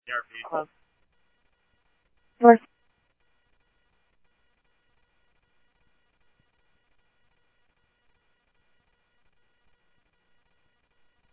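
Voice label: police department, scanner audio trunk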